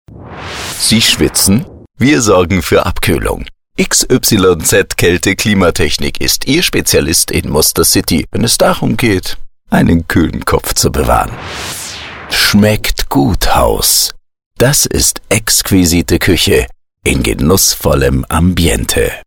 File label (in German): schmunzelnd genussvoll